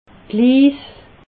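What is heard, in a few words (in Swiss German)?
"liis", Alsatian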